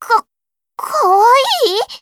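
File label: story, Japanese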